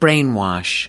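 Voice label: "brainwash", US English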